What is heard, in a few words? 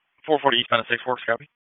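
Raleigh Police Northeast District Dispatch, p25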